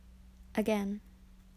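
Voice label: "again", Australian English, female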